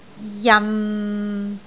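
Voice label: "jan6", Cantonese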